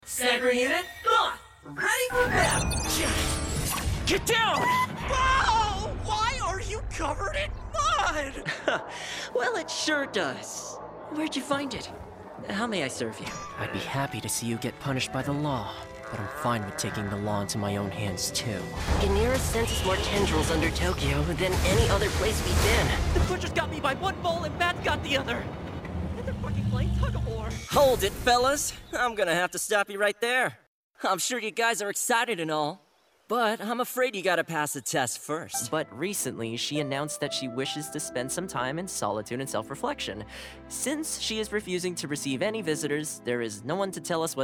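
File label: animation men characters, Voice Over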